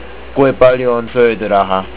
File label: HOW MUCH IS, estonian